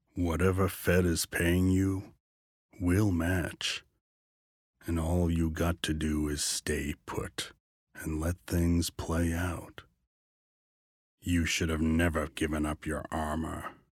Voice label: Character Villain; Villian